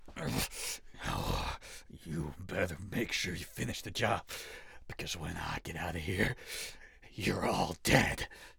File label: Video Game Sample anti hero